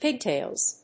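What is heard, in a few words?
"pigtails", English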